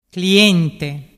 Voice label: "clientte", dialettu